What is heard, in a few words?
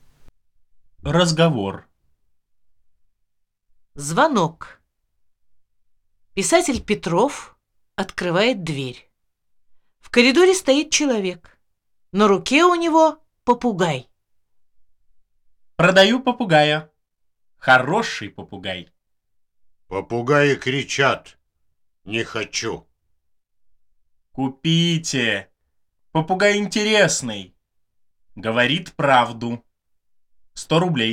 Conversation; 2006 RusseRevolution